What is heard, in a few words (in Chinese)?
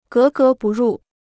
"格格不入", Chinese